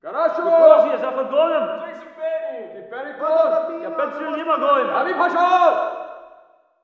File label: walla rus mountain battle